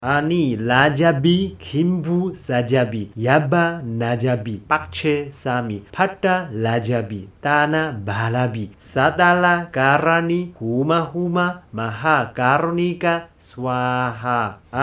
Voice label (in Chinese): 虛空藏咒(直誦); 虛空藏菩薩 Ākāśagarbha bodhisattva mahāsattva